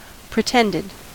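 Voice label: "pretended", US English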